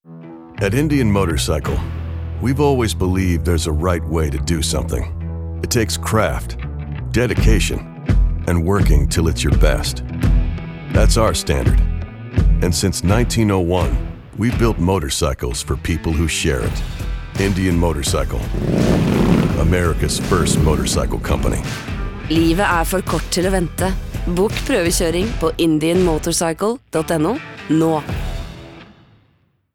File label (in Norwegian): Kommersiell spot med konkurransehale